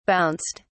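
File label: "bounced", British English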